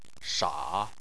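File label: "sha" tone 3, Chinese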